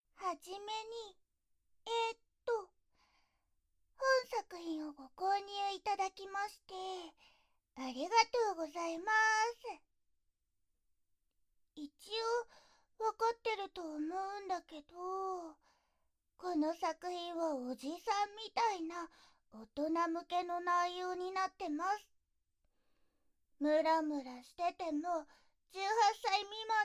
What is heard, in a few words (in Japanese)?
あいさつ, ASMR